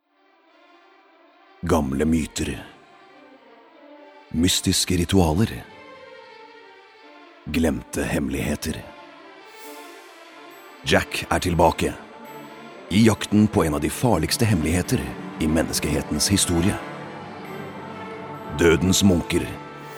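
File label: Norwegian movie trailer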